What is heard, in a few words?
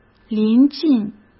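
"临近", Mandarin Chinese; hsk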